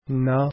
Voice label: "naa", Arabic alphabet